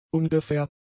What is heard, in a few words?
"ungefähr", German; adjectives